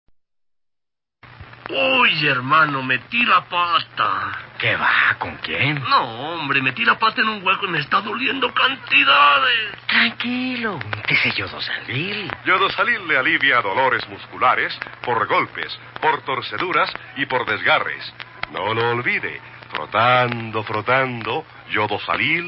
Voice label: Bogota 4755 kHz, Colombia; Emisoras Nuevo Mundo